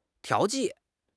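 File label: "调剂", Mandarin Chinese; HSK6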